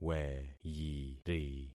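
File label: "weyiri", concatenated syllables; Affixing experiment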